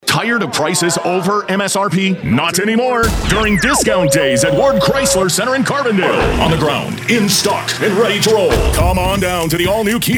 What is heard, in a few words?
car spots